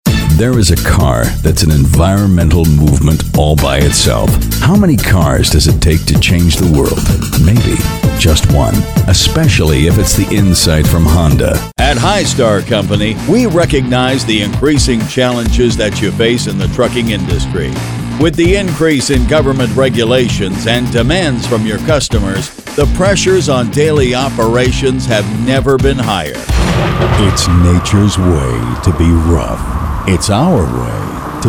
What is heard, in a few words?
Corporate Male; commercial voiceover